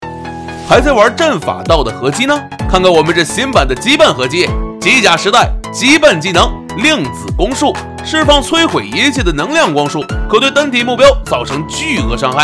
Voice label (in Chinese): 普通话信息流样音